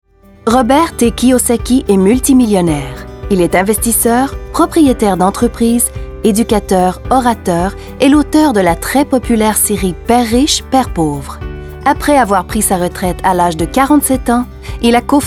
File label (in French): Narratif; Kyosaki